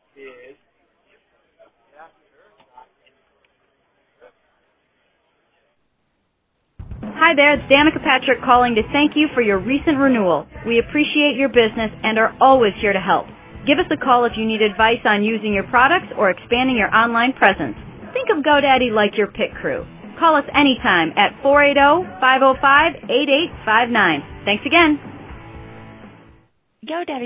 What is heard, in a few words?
voicemail; danica patrick; godaddy